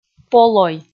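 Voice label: "полой", Komi, female; kpv audio dict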